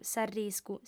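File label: "s'arriscu", female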